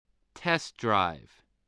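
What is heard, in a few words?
"test-drive", English word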